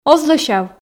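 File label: "оз лӧсяв", Komi, female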